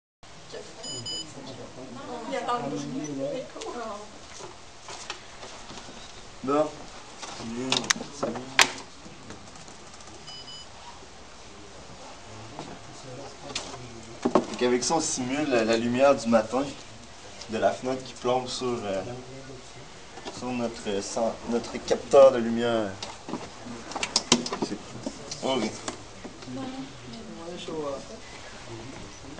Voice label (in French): robot chat